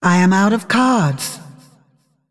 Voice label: Female Dragon No Cards